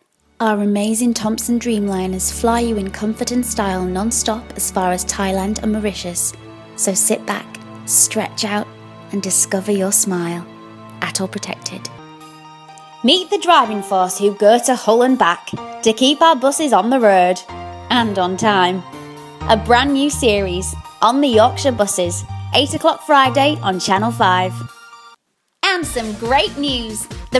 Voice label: Commercial